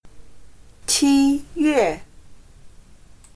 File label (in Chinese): "七月", chino